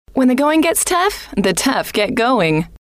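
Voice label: when the going gets tough the, female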